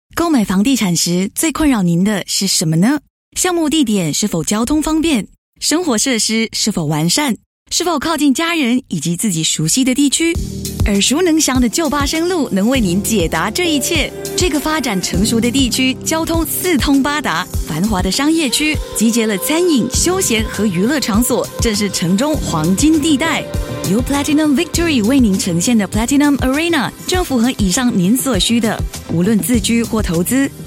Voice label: VO Sample Gentle Friendly